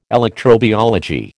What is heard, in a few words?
"electrobiology", American